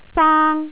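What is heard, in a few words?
"saang1", Cantonese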